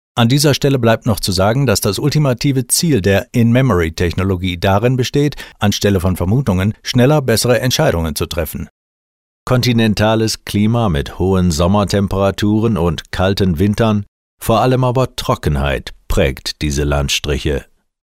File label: German Male